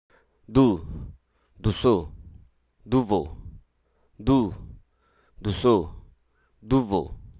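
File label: Hruso Aka; consist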